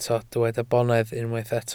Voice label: "bonedd", welsh

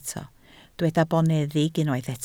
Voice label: "boneddig", Welsh

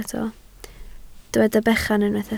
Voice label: "bechan", Welsh

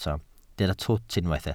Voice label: "twt", Welsh

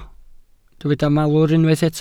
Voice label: "malwr", Welsh

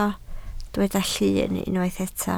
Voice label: "llun", Welsh

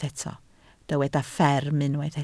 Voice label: "fferm", Welsh

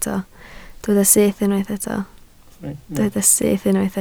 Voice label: "syth", Welsh

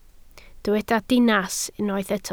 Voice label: "dinas", Welsh

